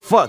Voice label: "fuck", expletives

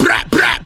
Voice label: "blak blak", ooh ahh

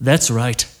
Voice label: "that's right", male

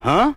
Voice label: "huh", Voice

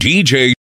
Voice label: dee jay announcer; Voice; introductions

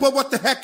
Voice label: "but what the heck", g-rated swears; Voice; expletives

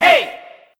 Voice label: "hey", voice

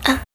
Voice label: "uh" female; ooh ahh; uh um

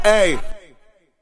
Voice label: "ay", voice

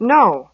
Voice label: "no" stern woman; expletives

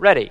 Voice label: "ready", announcer; Voice; introductions

